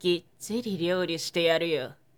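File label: woman, "kittiriryourisiteyaruyo"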